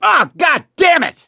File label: boomer reaction, voice; gambler